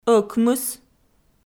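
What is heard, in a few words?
"ӧкмыс", Komi-Zyrian, female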